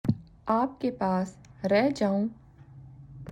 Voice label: Urdu